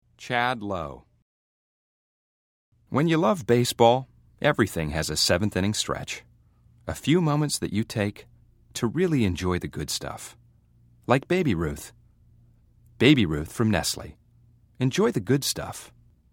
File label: commercial; Innovative LA